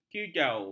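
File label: "kujau", Malay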